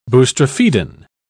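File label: "boustrophedon", British English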